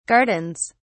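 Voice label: "gardens", British English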